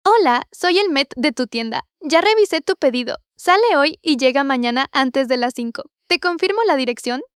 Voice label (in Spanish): joven clara